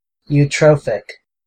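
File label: "eutrophic", US English; pronunciations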